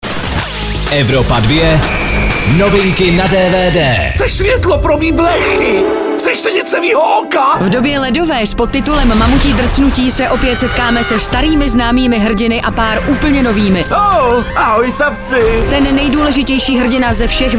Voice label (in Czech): dvd news; evropa2; doba ledova 5 mamuti drcnuti